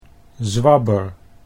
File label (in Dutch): "zwabber", Dutch